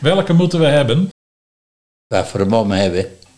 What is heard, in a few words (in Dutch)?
"Wafferemommehebbe", Nieuw lekkerlands dialect; welke moeten we hebben?; wafferremommehebbe; wat zullen we gaan doen